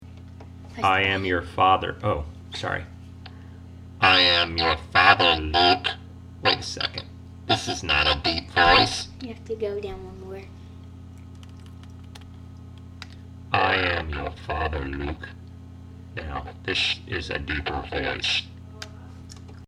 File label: Voice Change, Voice Changer; Voice Changer science kit